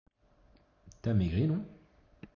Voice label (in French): régime neutre